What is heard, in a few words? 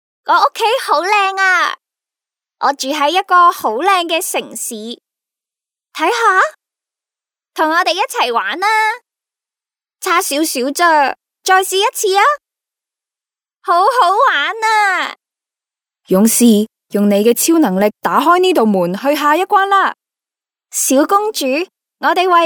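Cantonese female children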